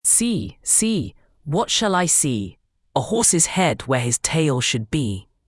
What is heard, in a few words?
fem brit; See See What Shall I See